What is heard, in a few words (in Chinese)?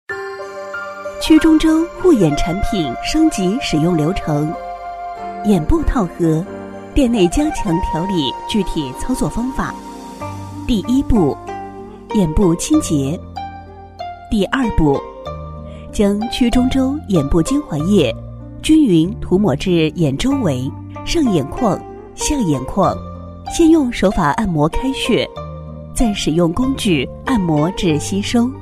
女 产品操作方法 护眼 稳重清晰; 曲中周护眼; 女11-洪亮激情; 沉稳大气